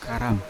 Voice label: "karam", Yawa; intestines; unat